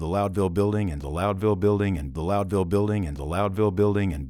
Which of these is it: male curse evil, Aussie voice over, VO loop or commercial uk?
VO loop